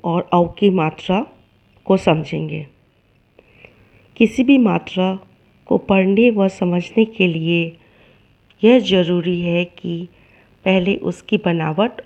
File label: Hindi Audio